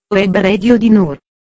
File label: Robot; WebRadio DNOR